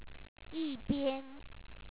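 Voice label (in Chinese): "yibian", Chinese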